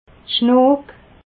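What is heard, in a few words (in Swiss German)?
"schnok", Alsatian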